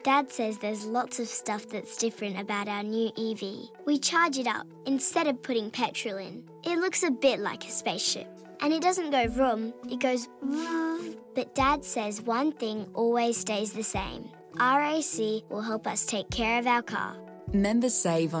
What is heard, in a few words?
RADIO Auto Services; MATTERKIND